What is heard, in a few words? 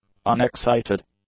"unexcited", english; adjectives